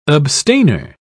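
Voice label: "abstainer", British English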